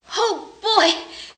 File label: "oh boy", boy; ooh ahh